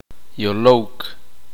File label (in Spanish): uspanteko hablar un idioma